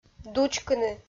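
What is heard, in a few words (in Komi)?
"дучкыны", Komi, female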